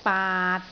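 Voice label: "baat6", Cantonese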